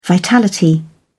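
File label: "vitality", English, British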